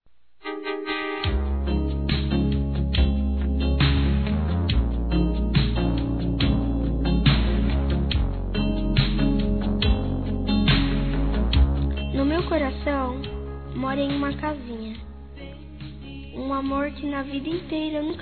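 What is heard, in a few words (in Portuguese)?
MENSAGEM P-MAMÃE-Feminino; Telemensagens; Telemensagens 2017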